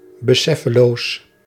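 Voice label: "beseffeloos", Dutch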